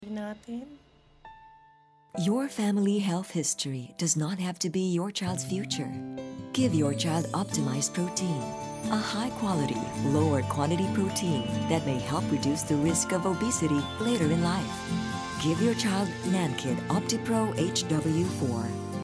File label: English (Motherly)